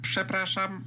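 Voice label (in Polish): "przepraszam", Polish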